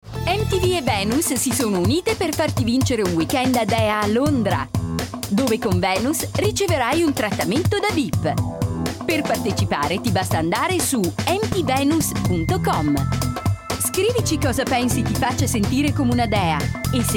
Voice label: Girlie playful youthful; MTV Gillette

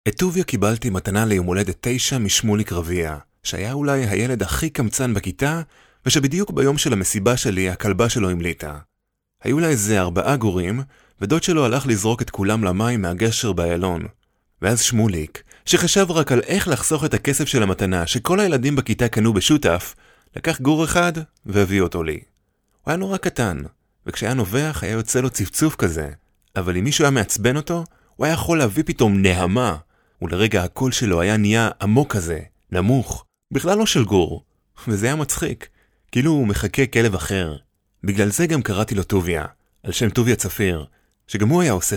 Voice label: hebrew audiobooks

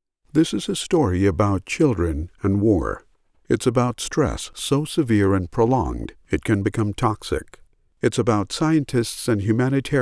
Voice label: Documentary narration

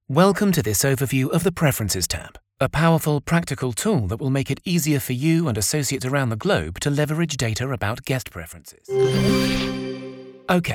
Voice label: vo elearning

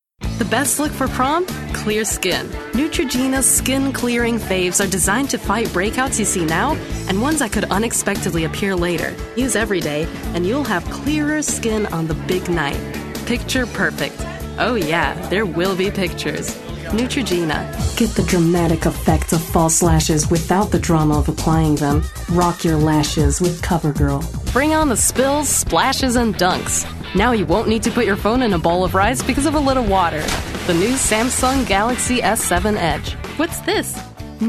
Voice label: Commercial

